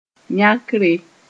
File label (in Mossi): "yãkre", moore